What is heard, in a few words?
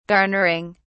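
"garnering", British English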